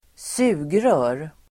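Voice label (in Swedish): "sugrör", swedish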